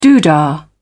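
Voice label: "doodah", British English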